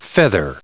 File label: "feather", en-us